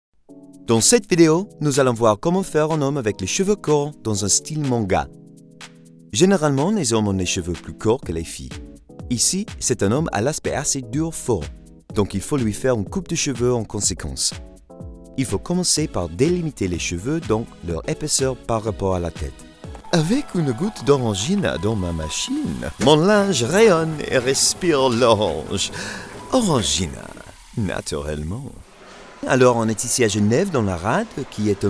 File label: French Mixed; Location: London, UK; english; standard